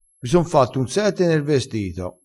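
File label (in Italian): "sette", vocabolario dialettale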